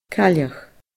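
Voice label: "cailleach", Scottish Gaelic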